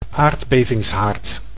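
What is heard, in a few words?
"aardbevingshaard", Dutch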